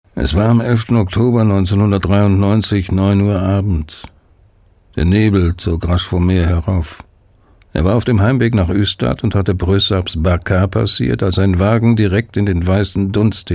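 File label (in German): de erzaehlung, deutsch maenner; sprecher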